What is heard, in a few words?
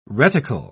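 "reticle", English, British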